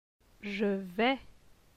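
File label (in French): "je vais", French; phonetique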